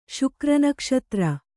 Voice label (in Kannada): "ಶುಕ್ರನಕ್ಷತ್ರ", Kannada